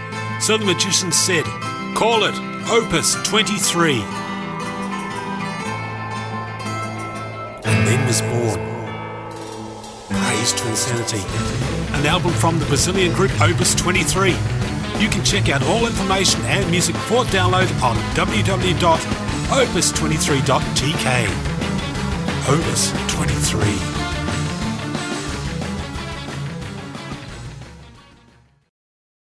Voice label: anuncio na celtic radio dos estados unidos locucao

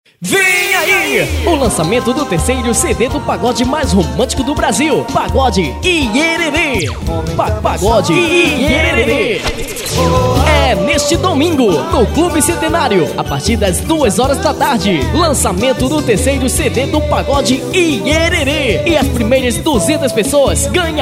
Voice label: spot lancamento do cd

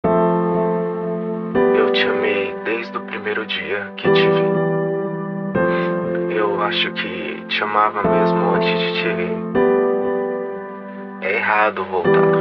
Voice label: nota de voz

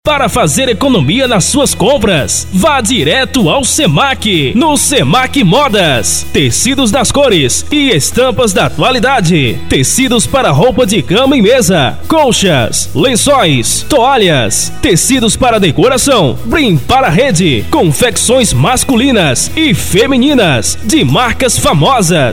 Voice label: offs locucao

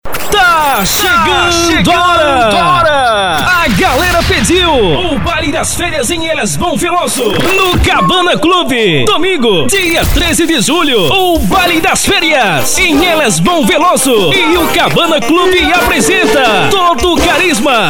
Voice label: offs locucao

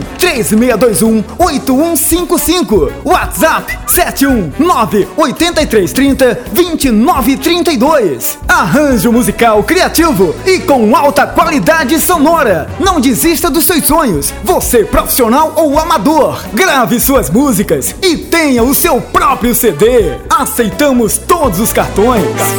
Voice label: spot arranjos musicais

